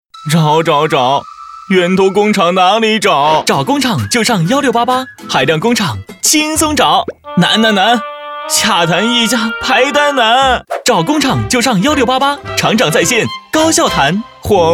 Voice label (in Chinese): 男 源头工厂 宣传